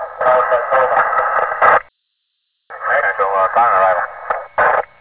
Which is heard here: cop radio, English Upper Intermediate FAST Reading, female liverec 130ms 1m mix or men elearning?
cop radio